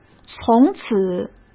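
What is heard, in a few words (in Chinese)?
"从此", Mandarin Chinese; chinese flashcards; hsk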